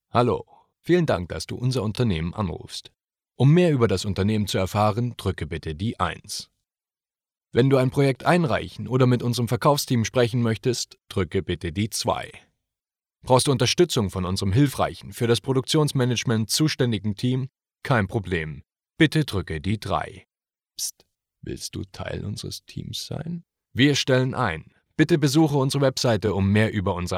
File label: Telefonansage German, voice